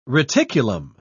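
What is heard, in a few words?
"reticulum", English, British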